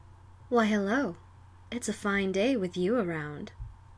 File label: female bosmer greeting, wood elf voice auditions